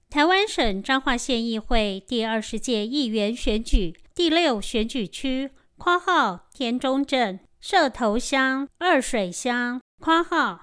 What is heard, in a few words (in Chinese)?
前言 國語; 彰化縣; 縣議員; 第六選舉區; 有聲公報